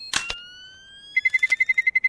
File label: "two", Female; cstrike